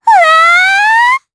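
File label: Vox Happy Japanese; Pansirone; kings raid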